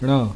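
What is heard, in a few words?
"NNa", consonants of the ĐevaNāgarī script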